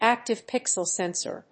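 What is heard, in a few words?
"active pixel sensor", English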